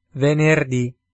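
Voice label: "venerdi", Italian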